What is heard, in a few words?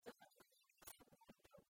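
"тӧрыт вӧлі асыв-вой тӧв", Komi, female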